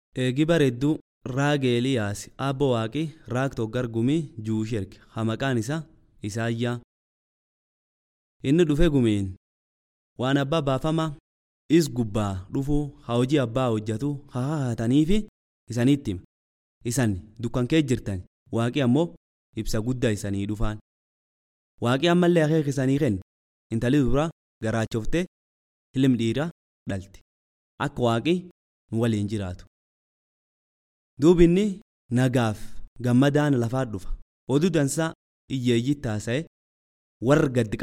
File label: Oromo Borana; Aba Bafama; Suffering Servant